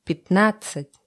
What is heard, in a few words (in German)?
russische zahl 20; Zahlen auf Russisch